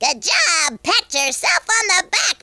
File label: "excellent", Conscience; speech